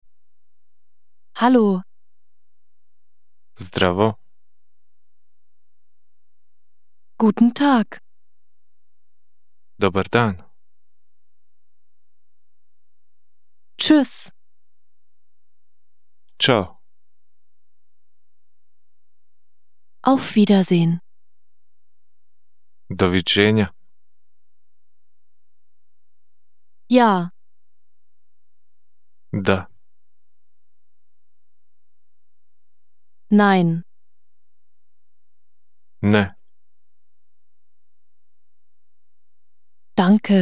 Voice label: bosnisch

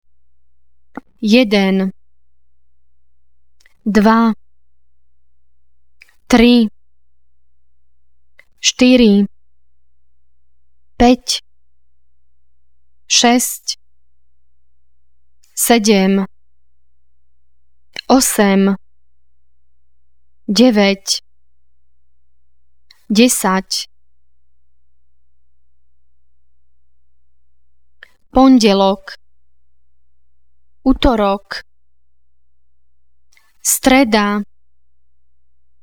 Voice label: slowakisch

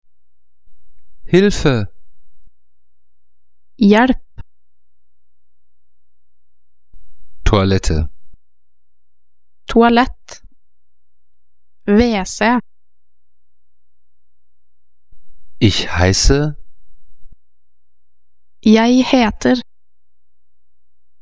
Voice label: norwegisch

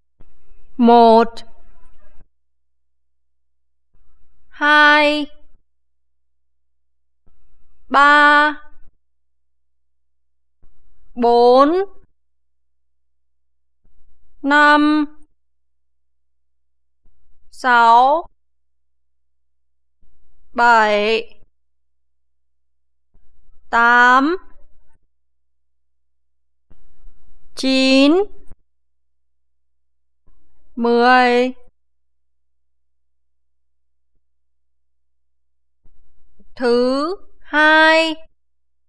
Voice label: vietnamesisch

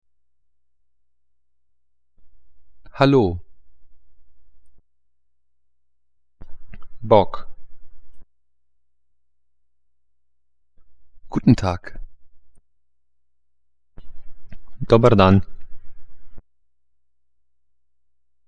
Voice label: kroatisch

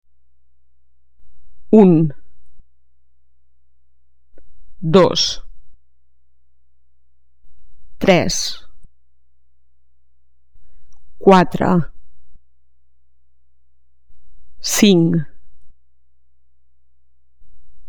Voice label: katalanisch

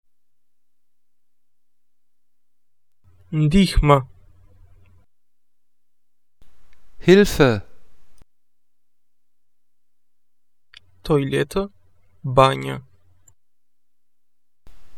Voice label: albanisch

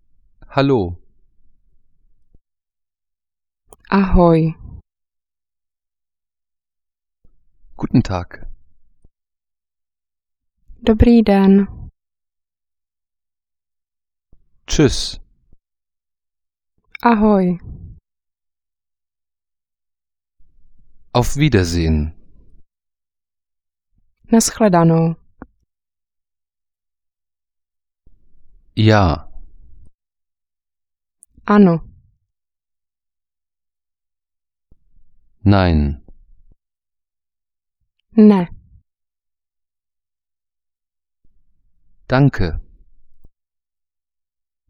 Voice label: Tschechisch

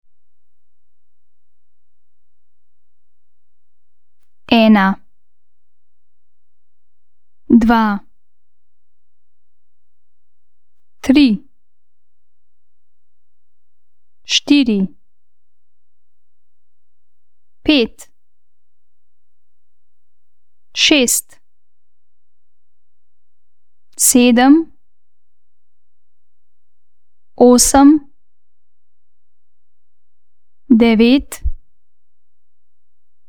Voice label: slowenisch

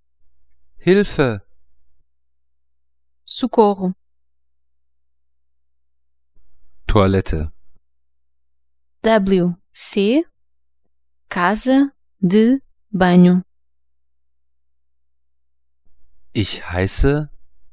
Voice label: portugiesisch

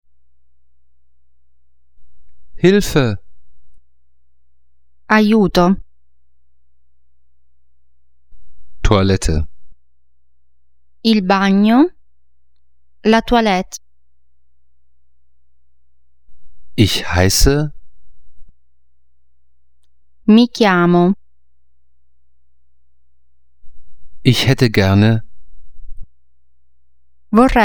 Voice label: italienisch

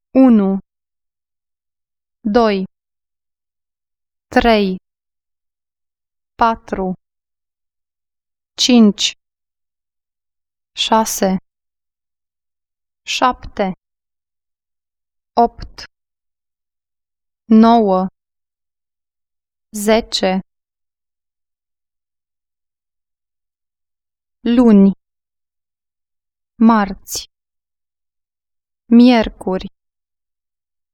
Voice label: rumaenisch

